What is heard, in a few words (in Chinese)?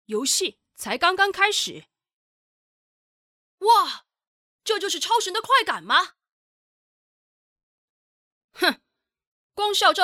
游戏音少年